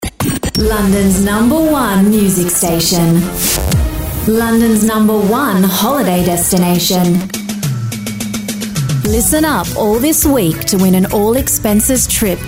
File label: RADIO Radio Promo; CAPITAL